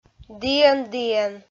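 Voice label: "діӧн-діӧн", Komi, female; kpv audio dict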